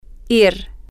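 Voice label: to go, Spanish, female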